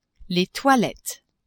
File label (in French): "toilettes", French; maison